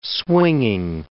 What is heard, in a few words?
"swinging", English word